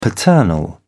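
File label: "paternal", British English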